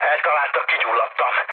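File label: Hungarian on fire mood low, speech; War Thunder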